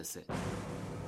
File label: Turkish